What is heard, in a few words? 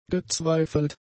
"gezweifelt", German; adjectives